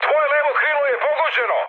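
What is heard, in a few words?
Serbian left wing mood high, speech; War Thunder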